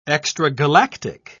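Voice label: "extragalactic", British English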